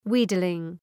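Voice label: "wheedling", English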